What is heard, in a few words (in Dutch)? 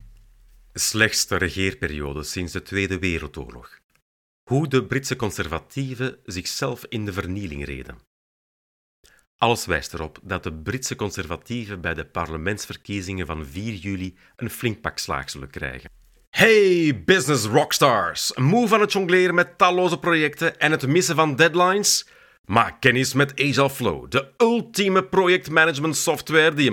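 Dutch, Commercial; GoLocalise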